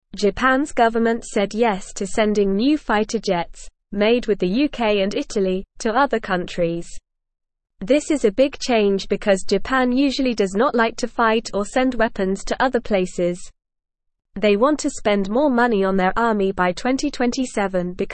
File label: English Lower Intermediate NORMAL Reading; Japan Sends Fighter Jets to Keep Peace with Other